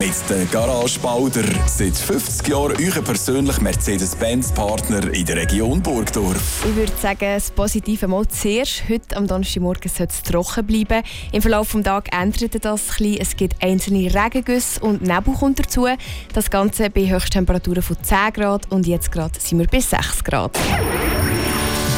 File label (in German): Wetter Sponsoring; Radio32